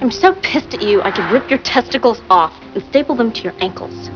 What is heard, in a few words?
Pissed